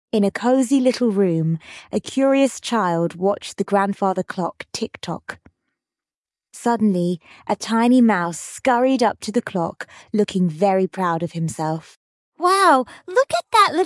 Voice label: fem brit; Hickory Dickory Dock